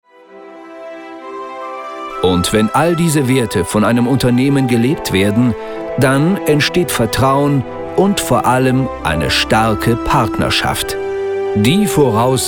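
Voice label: german male commercial; voice demos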